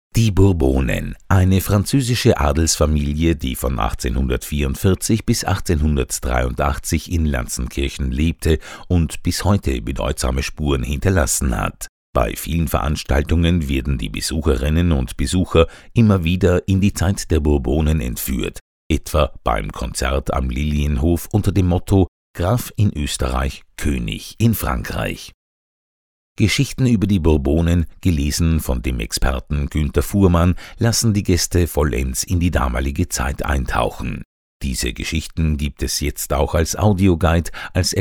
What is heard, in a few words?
german documentaries